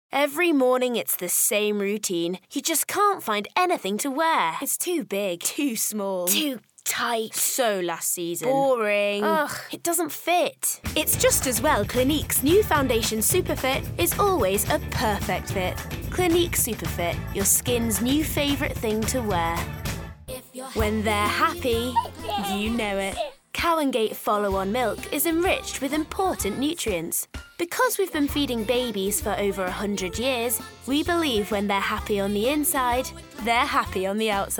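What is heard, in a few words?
Commercial Reel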